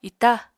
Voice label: "ita", Apatani